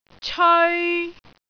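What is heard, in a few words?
"ceoi3", Cantonese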